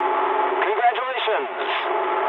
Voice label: eng player killed enemy mood med, speech eng

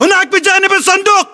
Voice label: landmark near crater, battle chatter, english

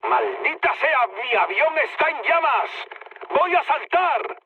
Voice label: on fire mood low; War Thunder

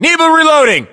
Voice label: US inform reloading, battlechatter, english

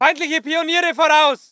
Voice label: voice ger infantry

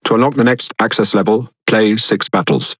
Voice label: Speech Access Level Unlock, EN GB; World Of Warships

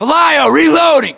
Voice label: inform reloading, US

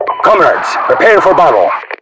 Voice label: good to go, voiceovers RU; mpvoice

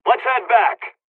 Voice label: dialogs turkish; War Thunder

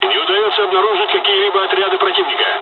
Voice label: dialogs russian; War Thunder

